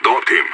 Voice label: enemy down, radio; IDF

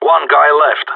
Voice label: one enemy left, radio; GSG9

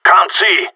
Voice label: flashed, radio; GSG9

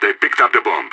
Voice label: enemy picked bomb, radio; SAS

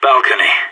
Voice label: balcony, radio; SAS

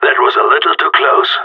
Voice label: round won close, radio; GSG9

